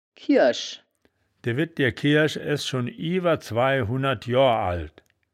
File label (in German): "kierisch", wittlich